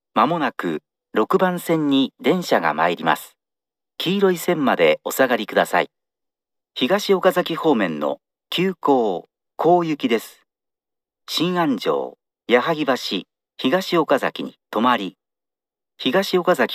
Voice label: approach exp local, st announce; higashiokazaki; meitetsu; chiryust